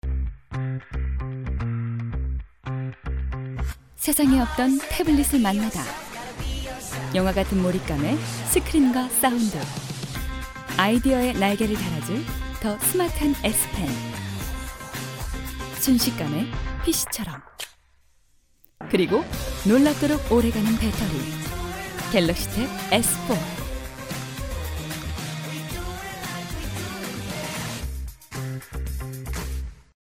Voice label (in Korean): CM 20대 30대 여 똑똑한 차분한; 갤럭시탭 S4